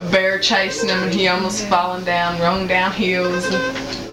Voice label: Conversation with 16 year old white female Arkansas; american english dialect